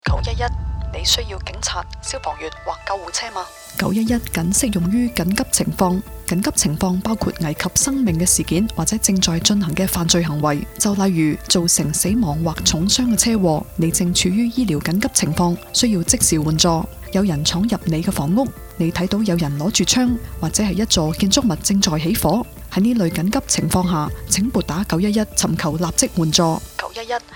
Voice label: Cantonese, emergency; Edmonton Police Services; Radio Ad; 9-1-1 is only to be used in emergency situations